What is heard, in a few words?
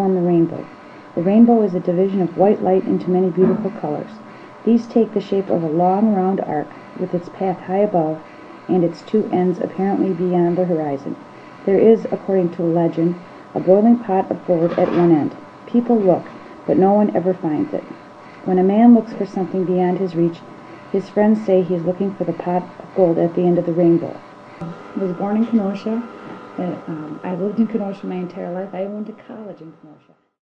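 wisconsin, dialects of English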